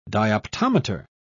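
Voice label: "dioptometer", British English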